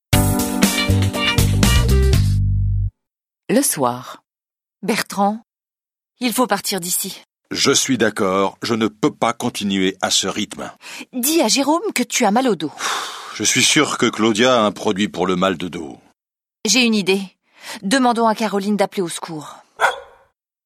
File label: French